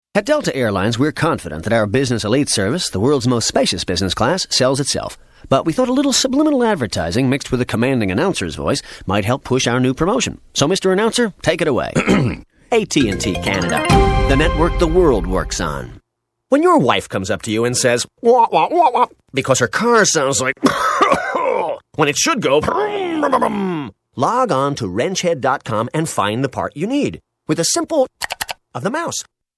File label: Commercial